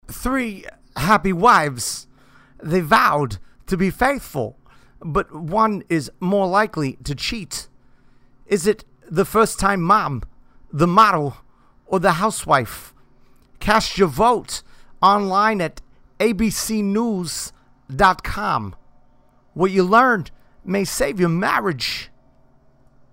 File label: impressions; christopher walken abc promo